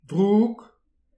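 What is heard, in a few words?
"drouk", Breton; petit precis de prononciation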